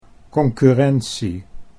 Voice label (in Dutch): "concurrentie", Dutch